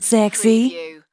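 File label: Female Says Sexy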